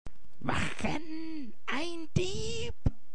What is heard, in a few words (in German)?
Komisch - Dieb; Wachen! ein Dieb!